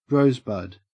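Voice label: "rosebud", Australian English; pronunciations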